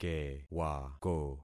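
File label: "kewako", concatenated syllables; Affixing experiment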